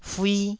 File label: "fui1", taiwanese syllables